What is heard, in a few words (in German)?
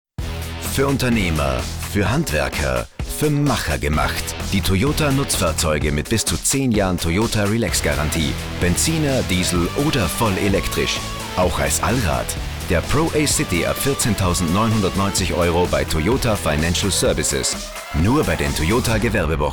werbung; toyota